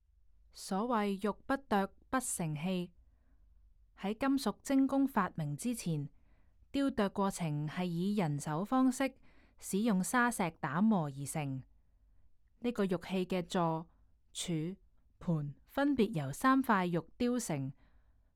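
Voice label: Cantonese, audio guide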